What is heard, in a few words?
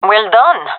compliment, gendermerie fem; vo